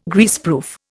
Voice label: "Greaseproof", Indian